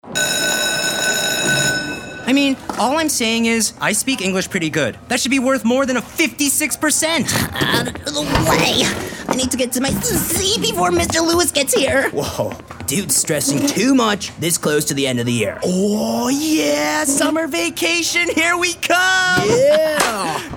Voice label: Animation